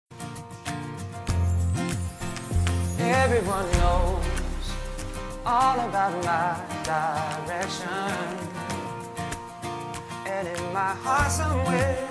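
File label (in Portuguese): aniversario cunhado masculino, telemensagem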